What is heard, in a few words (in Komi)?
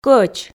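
"кӧч", Komi, female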